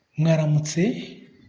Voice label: good morning, kinyarwanda